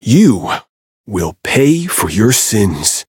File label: Voice variable chant